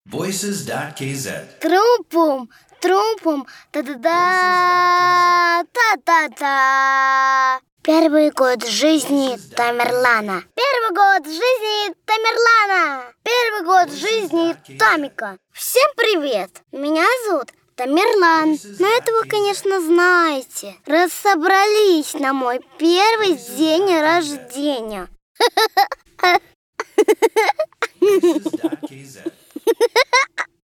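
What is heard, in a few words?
kid Russian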